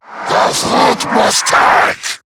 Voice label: Cover Me, en; Zariman Wraith

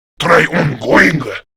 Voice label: Attack Grineer Male; Warframe; Battle Barks

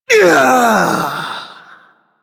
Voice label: Ability Long Cast, Barks; Warframe; Corpus Lich; Persona C

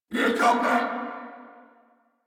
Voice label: Player Spotted Corpus Venus Male, en; Warframe; Battle Barks

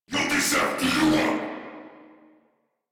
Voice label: Player Spotted, Battle Barks

